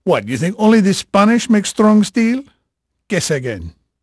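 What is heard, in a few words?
Portuguese male, voice; Pirates Of The Caribbean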